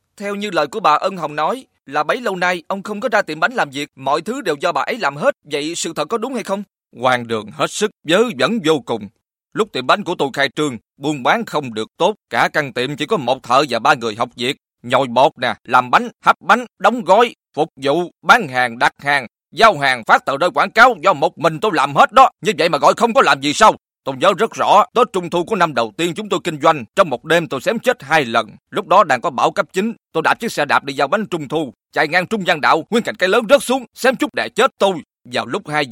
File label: Vietnamese M